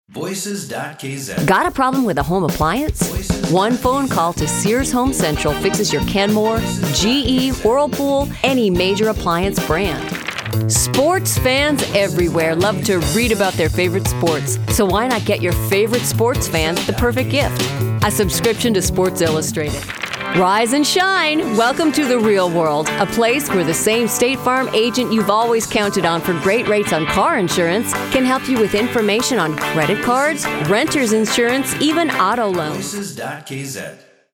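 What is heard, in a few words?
English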